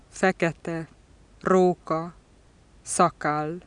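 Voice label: velar stop voiceless medial, hungarian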